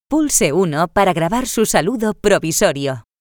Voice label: spanish Record Temporary Greeting

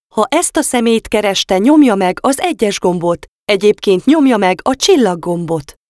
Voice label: Agent Confirmation, hungarian

